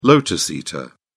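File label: "lotus eater", British English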